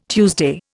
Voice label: "Tuesday", Indian

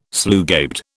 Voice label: "slugabed", British

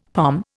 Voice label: "pom", Indian; Dictionary

